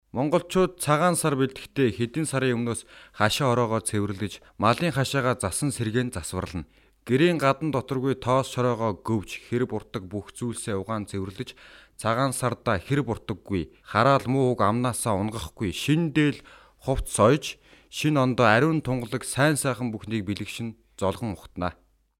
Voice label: Mongolian Male